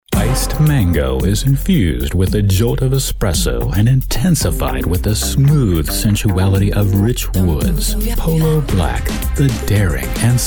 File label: Commercial